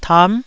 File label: "tam9", Taiwanese